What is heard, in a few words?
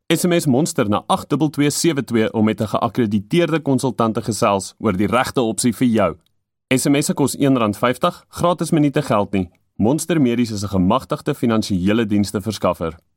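Afrikaans, Corporate; GoLocalise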